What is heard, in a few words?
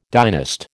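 "dynast", American English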